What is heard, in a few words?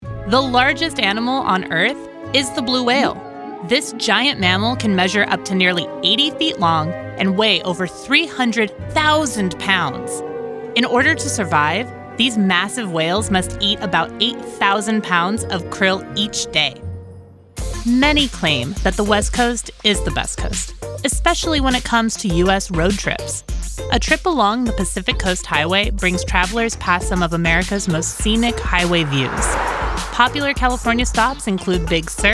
Narration